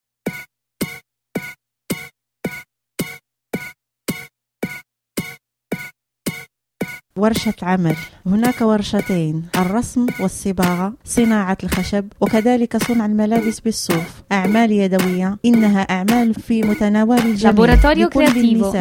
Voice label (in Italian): SPOT arabo; LabCreativo al 22